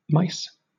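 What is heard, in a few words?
"mice", British English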